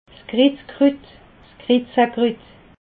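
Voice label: "krizkrut", Haut Rhin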